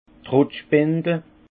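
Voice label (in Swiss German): "trott spindel", Haut Rhin; vin; pressoir à vis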